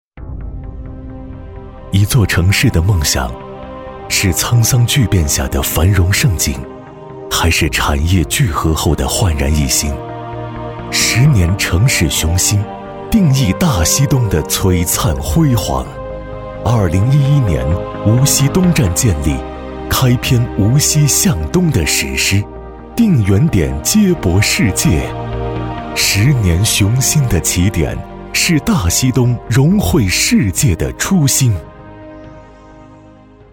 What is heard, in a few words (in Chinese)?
旁白地产; 无锡龙湖